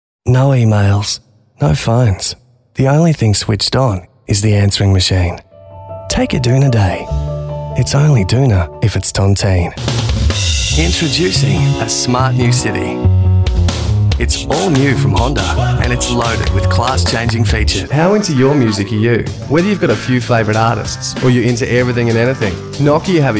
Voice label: soft